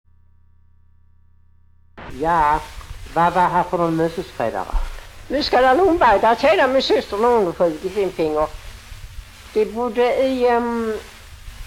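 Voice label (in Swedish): Lunds stad Skåne, dialekter; Folkminnen; Svenska sagor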